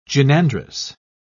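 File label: "gynandrous", British English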